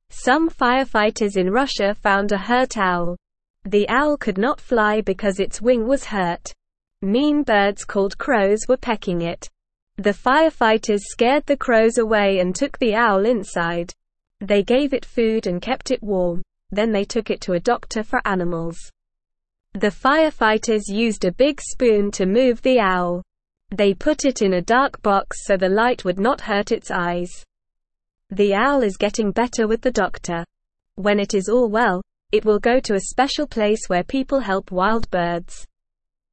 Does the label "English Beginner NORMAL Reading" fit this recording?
Yes